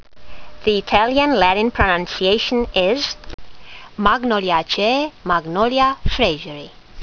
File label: latin; Magnolia fraseri